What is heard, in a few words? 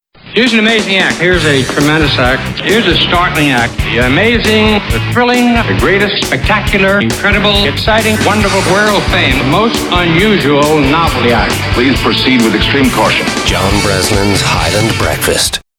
Radio Imaging Bfast Show; Highland Radio; John Breslin